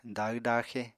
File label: "dahw-daaxe", Apatani